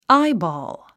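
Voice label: "eyeball", English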